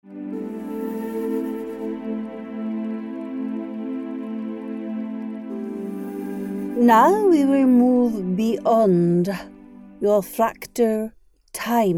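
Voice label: Meditation; Beltane Air Element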